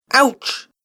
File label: "Ouch", Male Voice; Recorded Voice